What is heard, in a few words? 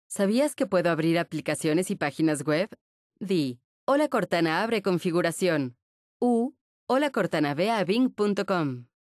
open settings, es-mx; Windows; HoloShell